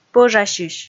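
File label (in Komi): "пӧжасьысь", Komi, female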